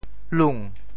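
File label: "lung2", Teochew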